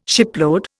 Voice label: "shipload", Indian